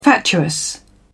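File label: "fatuous", British English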